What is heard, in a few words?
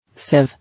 "Sieve", English